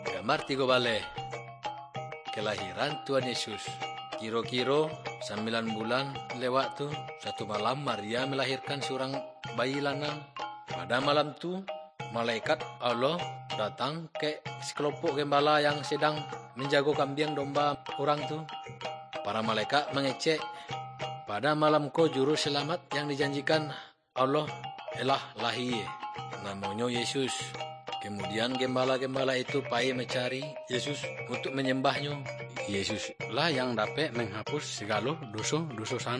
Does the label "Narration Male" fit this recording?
No